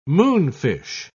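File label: "moonfish", British English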